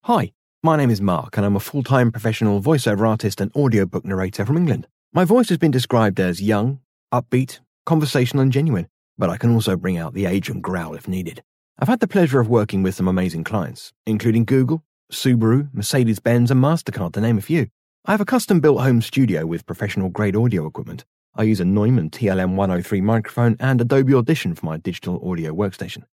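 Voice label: British English, male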